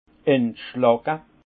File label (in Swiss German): "entschlacke", alsace; vin; détartrer